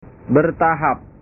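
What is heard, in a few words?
"bertahap", Indonesian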